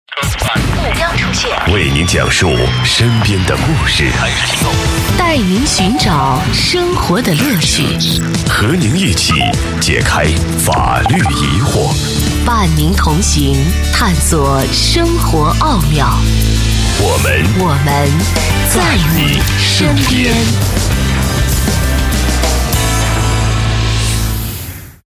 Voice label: 男 栏目包装 片头录音, 国语男声配音 厚重大气

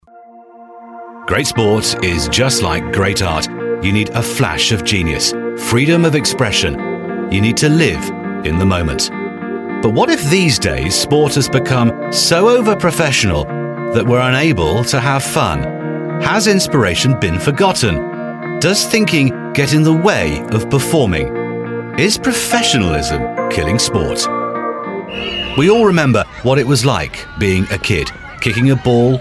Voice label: 外籍 运动宣传片 深情讲述

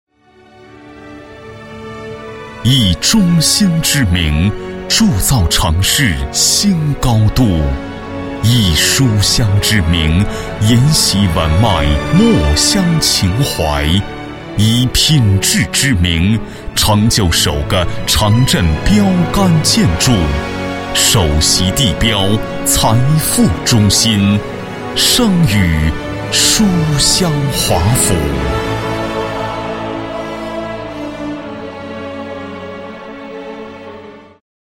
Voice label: 男3, 国语男声配音, 广告; 书香华府

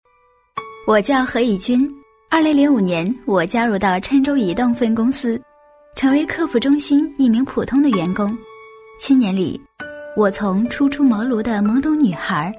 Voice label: 移动客服自白, 国语女声配音

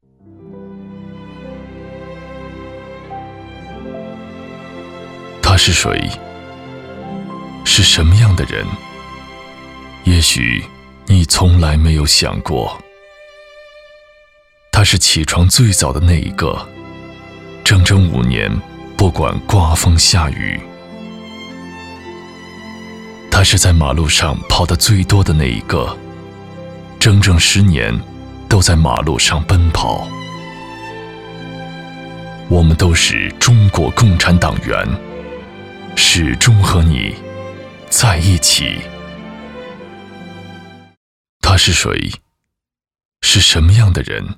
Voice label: 男 宣传片 深沉讲述; 共产党宣传片

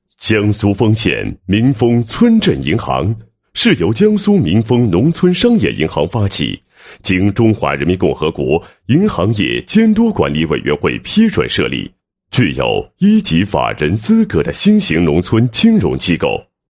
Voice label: 男 企业宣传 介绍; 江苏丰县民丰村镇银行

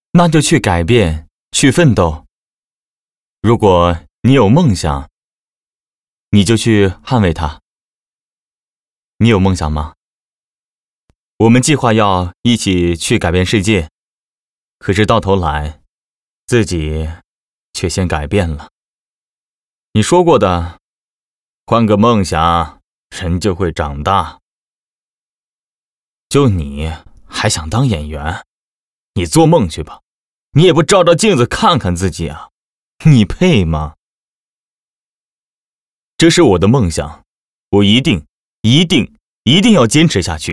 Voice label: 角色, 正常男素人

